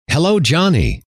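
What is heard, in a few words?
"hello", male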